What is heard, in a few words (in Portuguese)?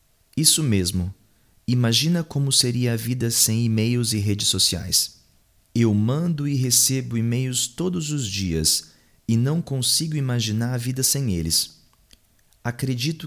computador dialogo alto